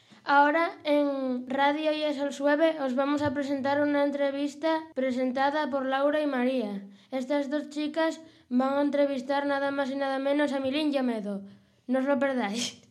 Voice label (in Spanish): publicidad entrevista